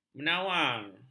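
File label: "menawar", Malay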